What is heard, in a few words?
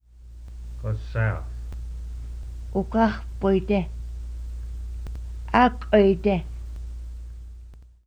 "south", quapaw words